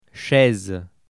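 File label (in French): "chaise", French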